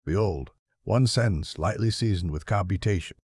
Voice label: Precise and lightly comic, useful for technical reads with a deadpan tone.; precisedrylightly comic